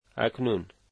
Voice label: now, Dari